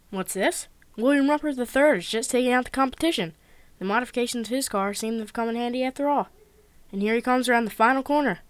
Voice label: anouncer; Brickshelf